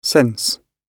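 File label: "sense", British English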